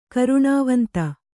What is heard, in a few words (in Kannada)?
"ಕರುಣಾವಂತ", Kannada; karuṇāvanta; noun; = ಕರುಣಾಳು.